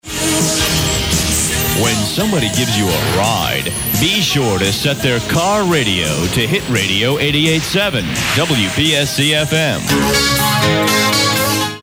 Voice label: SWEEPER; When Somebody Gives You A Ride

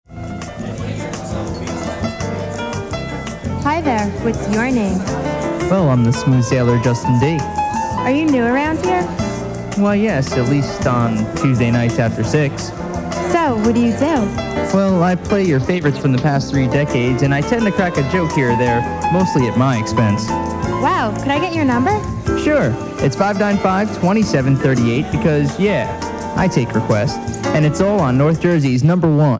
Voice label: PROMO

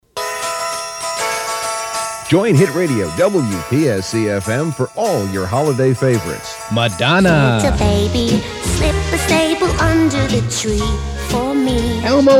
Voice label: SWEEPER; XMAS

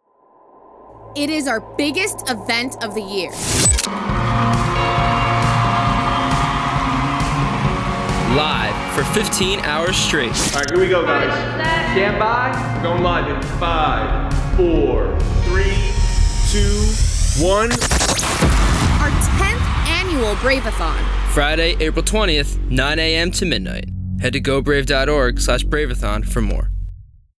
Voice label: PROMO; BRAVE Braveathon2018

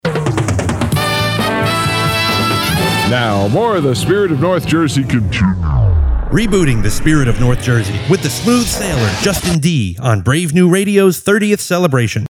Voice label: Hit Radio name shout; Reboot

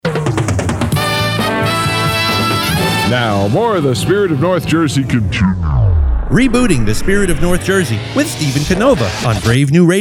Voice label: name shout; Hit Radio; Reboot

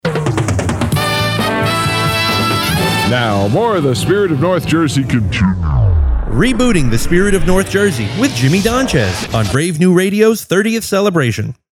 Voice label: name shout; Hit Radio; Reboot

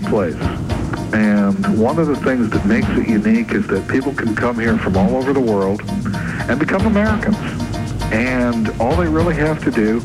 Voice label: PROMO Night Talk

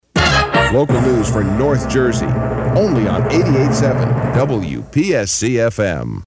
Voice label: SWEEPER Local News

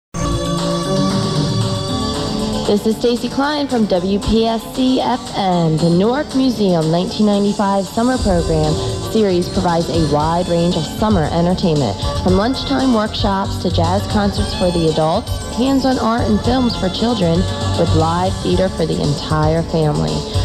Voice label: PSA; Newark Museum

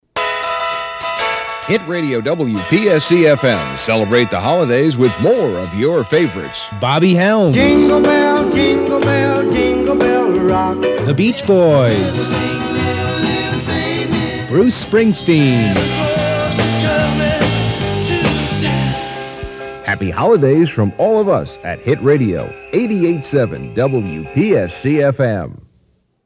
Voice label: SWEEPER; XMAS

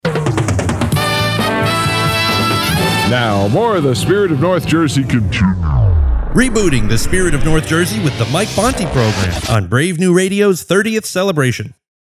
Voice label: name shout; Hit Radio; Reboot

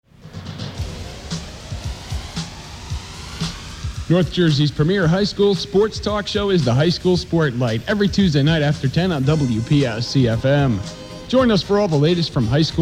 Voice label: PROMO High School Sportlight

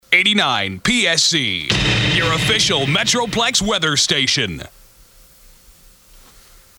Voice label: SWEEPER Metroplex Weather

